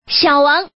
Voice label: Woman